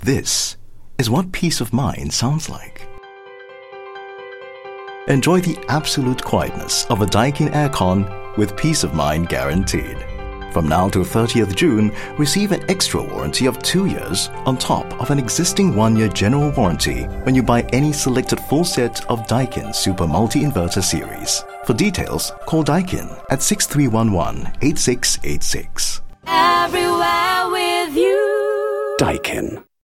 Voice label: Asian English radio spot; Daikin